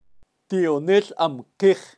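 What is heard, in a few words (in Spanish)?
"tionel am kej", kaqchikel, pronunciacion de animales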